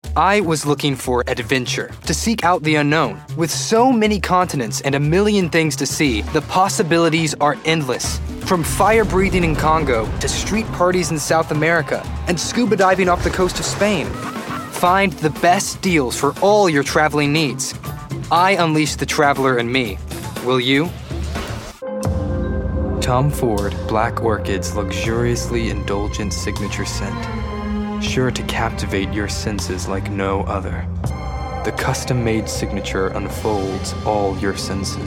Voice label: commercial reel